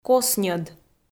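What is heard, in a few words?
"косньӧд", Komi, female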